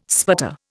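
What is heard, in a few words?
"sputter", Indian English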